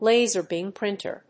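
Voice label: "LASER BEAM PRINTER", English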